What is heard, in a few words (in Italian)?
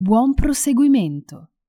"Buon proseguimento", Italian; hello in italian